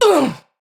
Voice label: Pain Ranged, Battle Barks; Tau; Tau Dax

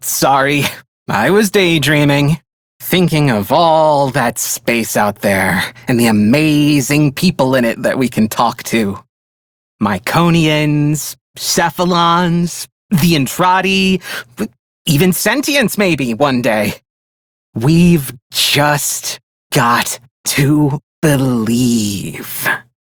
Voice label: Idle, Male; Dialog; Warframe; Railjack Crew; Perrin Sequence

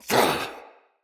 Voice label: Attack, Battle, Soldier Male; Barks; Dialog; Dendrit

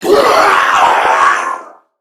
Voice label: Death Crewmen, en; Warframe; Corpus Crewmen; Crewman B; Dialog Barks Battle

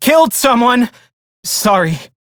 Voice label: Crew Enemy Defeat, Male; Warframe; Railjack; Perrin Sequence

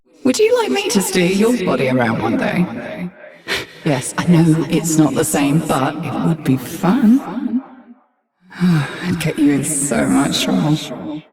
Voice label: Ambient Barks, en

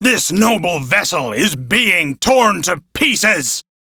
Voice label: Crew Ship Low, Male, en; Warframe; Railjack Crew; Arbiters Hexis

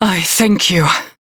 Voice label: Crew Heal, Female; Dialog; en; Warframe; Railjack Crew; New Loka

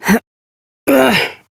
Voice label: Horse Mount Adult, Dialog; Duviri

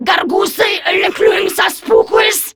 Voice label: Patrol, Grineer Female; Warframe; Battle Barks

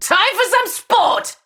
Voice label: Player Spotted Ranged, Battle Barks; Tau; Tau Dax

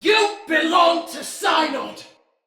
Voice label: Attack, Male, en; Battle; Barks; Dialog; Sinecure Banneret

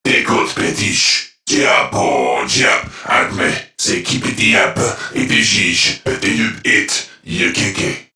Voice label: Patrol Crewmen, Dialog, Barks; Warframe; CorpusCrewmen; CrewmanA

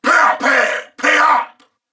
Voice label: Reload Male, en; Dialog; Barks; Battle; Corpus Venus; Warframe; CorpusVenusMaleB

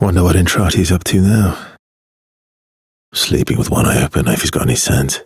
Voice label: Ambient Barks, en; Warframe; Mall Romance; Arthur

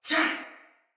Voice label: Pain, Soldier Male; Sinecure Soldier Male A

